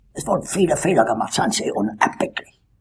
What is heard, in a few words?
chat